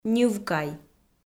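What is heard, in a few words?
"ньывкай", Komi, female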